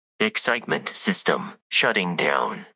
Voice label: upset; fertig classic games